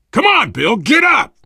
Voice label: revive friend loud, player survivor voice biker

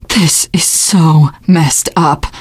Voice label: reaction negative, teen girl; survivor voice

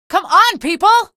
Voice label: get aboard, female; video games; npc; source engine; episodic; episode 1

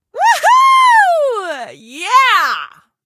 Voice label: jump yell; ep2; car; Source engine

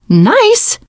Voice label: nice job, teengirl

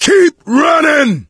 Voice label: yell run; voice; source engine; left 4 dead 2

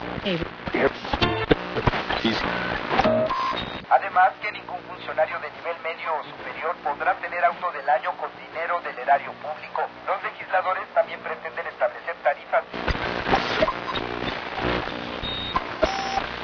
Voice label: spanish radio, ambient chatter; Source Engine; cstrike

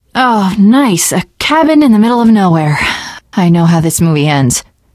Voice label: see cabin, teen girl; voice; source engine; left 4 dead 2; survivor

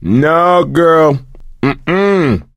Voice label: no, female; voice; source engine; left 4 dead 2

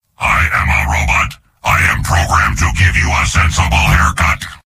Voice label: soldier robot

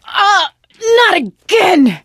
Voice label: wedding witch attacking, survivor voice; video game; source engine; left 4 dead 2; producer

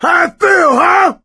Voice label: battlecry, voice; source engine; left 4 dead 2

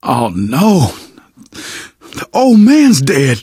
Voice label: grief, survivor voice; left4dead; manager; vet; Source engine

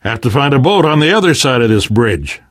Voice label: harbor blocked, survivor voice; left4dead; namvet; Source engine

